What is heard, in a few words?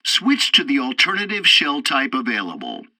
tutorial tank arcade fourth target shells, english; War Thunder